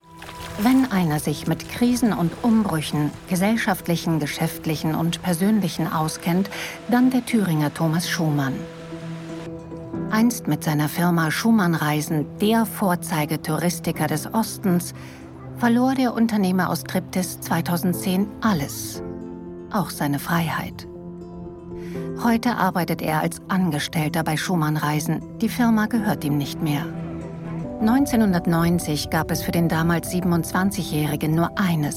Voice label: kommentar; umbrueche

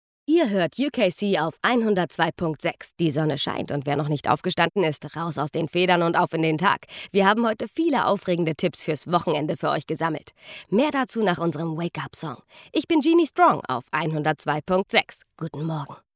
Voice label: reporterin voiceover

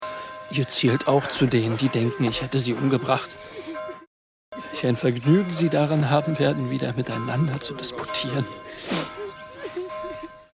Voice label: trauer

